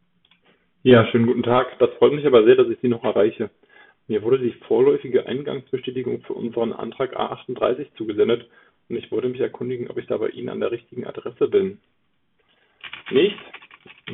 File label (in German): Testaufnahme Sennheiser MTW2 TWS Bluetooth